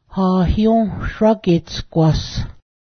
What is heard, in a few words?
ironworker, Cayuga